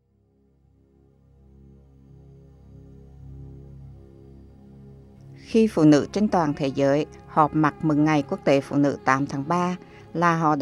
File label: Vietnamese